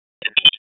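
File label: Police Northwest District Tactical 1, p25; Raleigh; wake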